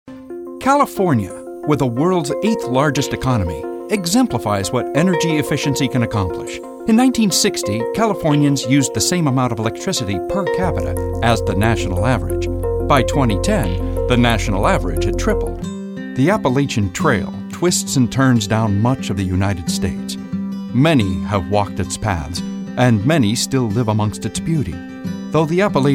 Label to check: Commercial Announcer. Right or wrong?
Wrong